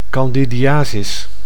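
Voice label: "candidiasis", Dutch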